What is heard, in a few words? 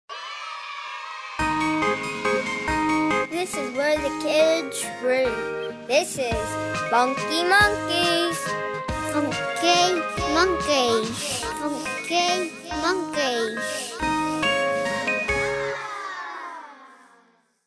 GIRL, Jingles; Funky Monkeys; UK Jingles